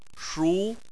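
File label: "shu" tone 2, Chinese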